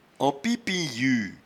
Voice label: "enpiepeinyue", patois jura